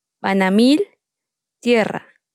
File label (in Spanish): Palabras en tsotsil, Banamil - Tierra